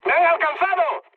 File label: aircraft damaged, mood high, speech; War Thunder